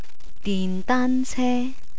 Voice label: "dindaance", Cantonese